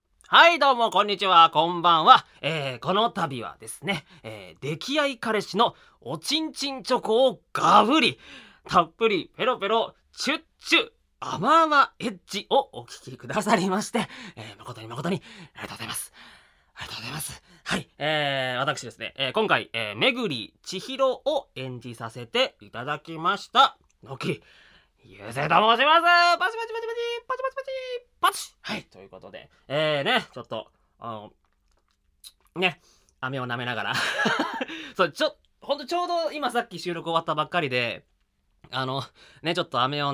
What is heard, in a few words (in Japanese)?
フリートーク